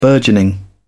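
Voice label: "burgeoning", British English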